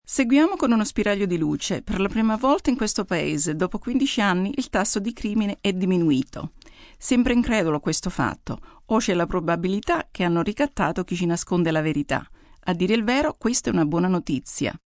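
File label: News Crime, Broadcast, news, female news item in Italian on declining crime rate.; human voice speaking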